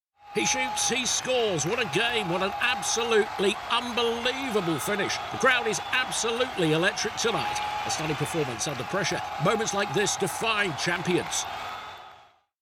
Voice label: Football Commentator Character